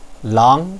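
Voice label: "lang", Mandarin Chinese, second tone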